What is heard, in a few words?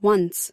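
"once", American English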